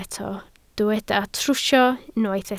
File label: "trwsio", Welsh